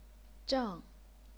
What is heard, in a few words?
"zheng4", chinese pinyin